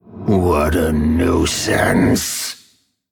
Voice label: Male Undead Attack